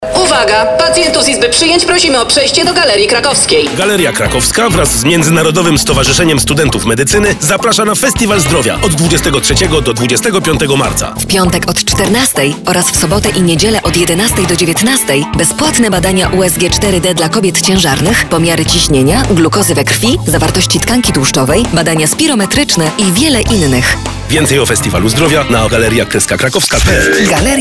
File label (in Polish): spot reklamowy; festiwal zdrowia